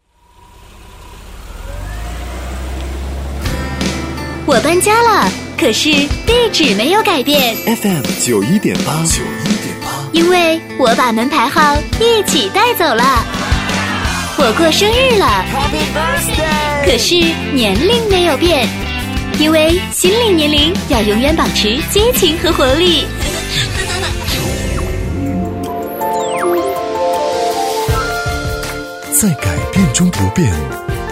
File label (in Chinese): 女 电台包装 音乐广播 青春活力; 南通音乐广播; 轻松活泼